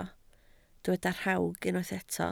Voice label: "rhawg", Welsh